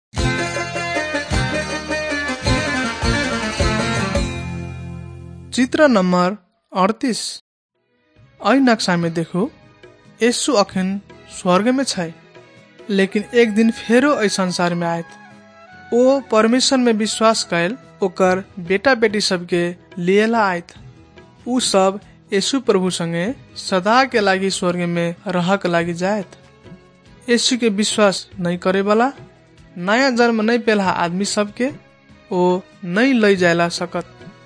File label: maithili thetiya